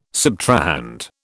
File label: "subtrahend", British